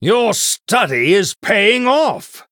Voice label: Male Human Combat Win